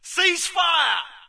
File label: brit ceasefire; player; Source Engine; dod